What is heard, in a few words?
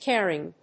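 "caring", English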